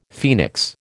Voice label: "phoenix", American